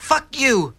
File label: "fuck you", male; Voice; expletives